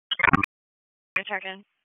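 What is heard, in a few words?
Police Dispatch; wake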